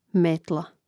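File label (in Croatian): "metla" Croatian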